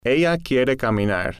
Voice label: "ella quiere caminar", Spanish